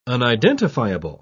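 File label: "unidentifiable", British English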